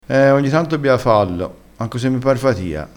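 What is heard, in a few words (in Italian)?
"fatia", vocabolario dialettale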